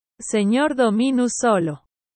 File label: spanish LORD dominus alone